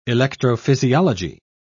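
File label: "electrophysiology", British English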